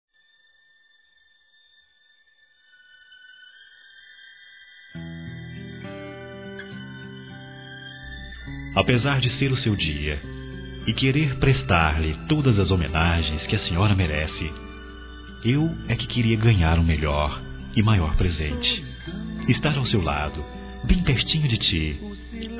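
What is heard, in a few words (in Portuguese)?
DISTANTE, Masculino; Dia das Mães; Telemensagens 2017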